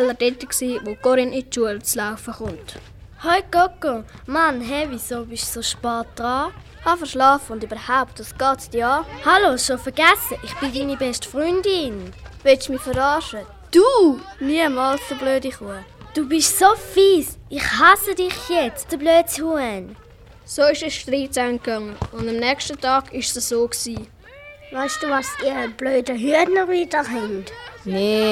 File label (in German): Hoerspiel Zickenkrieg; Minutengeschichten